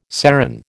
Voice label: "serran", American

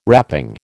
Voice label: "wrapping", American

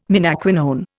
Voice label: "menaquinone", Indian

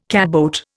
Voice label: "catboat", Indian

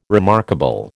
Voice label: "remarkable", American

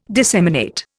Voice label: "disseminate", Indian

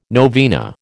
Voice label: "novena", American

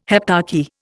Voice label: "heptarchy", Indian

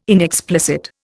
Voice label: "inexplicit", Indian

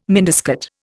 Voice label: "miniskirt", Indian

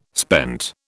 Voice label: "spent", British

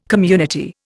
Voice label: "community", Indian

